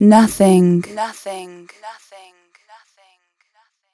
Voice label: f 140 spo, fem Vocals

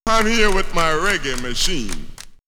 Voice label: Jamaican reggae machine, vox; X-Static Goldmine

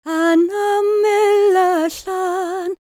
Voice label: WELSH F, World Voices

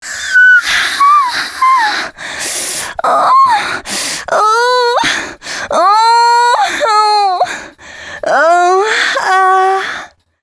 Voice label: female c#133, adlips

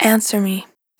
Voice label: "Answer Me", Vocal Samples, Words

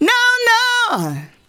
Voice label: NO NO, FEMALE VOICE; Best Service; Hallelujah

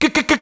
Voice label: "G G G", shouts; Best Service; Hyper Dance

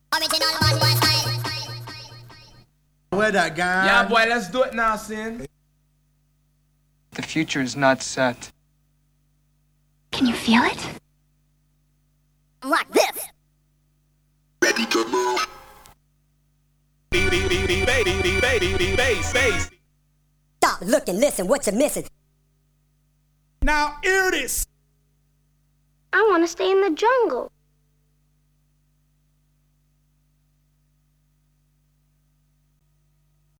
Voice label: Vocal Drops; Kniteforce; 1000 Samples Volume 1

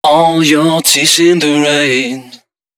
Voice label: male d#130, Dance Ultra Voice hooklines

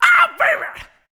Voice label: "ah baby", shouts; Best Service; Hyper Dance

